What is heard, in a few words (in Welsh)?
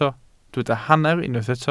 "hanner", Welsh